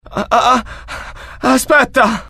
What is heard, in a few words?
fear, IT; mohaa; dialogue